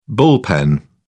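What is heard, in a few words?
"bullpen", British English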